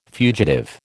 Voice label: "fugitive", American

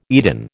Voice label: "Eden", American

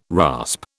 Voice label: "rasp", British

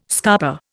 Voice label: "scarper", Indian

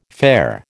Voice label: "fare", American

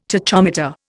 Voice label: "tachometer", Indian

